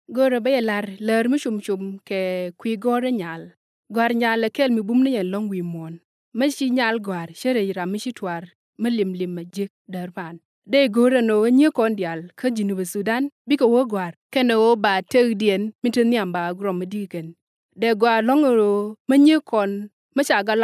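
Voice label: Nuer, female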